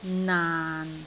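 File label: "naan6", Cantonese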